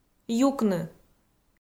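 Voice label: "юкны", Komi, female; kpv audio dict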